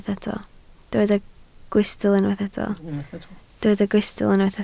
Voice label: "gwystl", Welsh

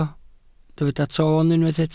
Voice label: "toon", Welsh

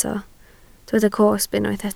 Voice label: "cosb", Welsh

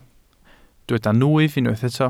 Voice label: "nwyf", welsh

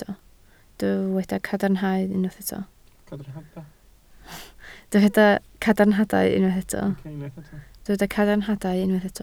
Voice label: "cadarnhadau", Welsh

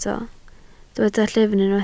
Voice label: "llyfn", Welsh

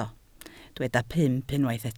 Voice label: "pump", Welsh

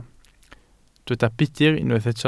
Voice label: "budr", Welsh

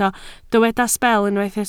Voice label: "sbel", Welsh

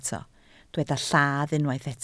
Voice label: "lladd", Welsh

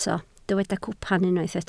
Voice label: "cwpan", Welsh

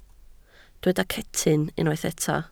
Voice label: "cetyn", Welsh

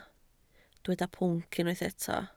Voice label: "pwnc", Welsh

